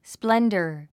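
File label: "splendor", English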